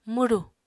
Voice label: "mwru", Apatani